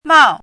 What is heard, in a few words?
"mao4", pinyin